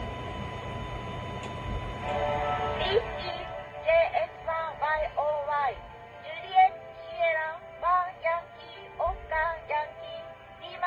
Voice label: digitalker women; アマチュア無線